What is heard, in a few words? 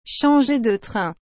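"changer de train", French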